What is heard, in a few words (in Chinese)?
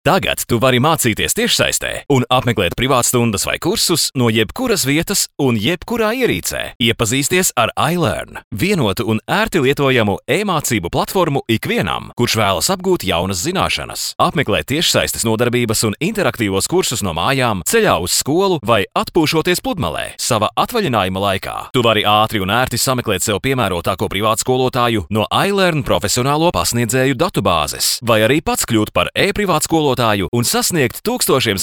拉脱维亚语男